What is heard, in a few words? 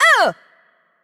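Attack Heavy, Polish; pl PL; bloom minigame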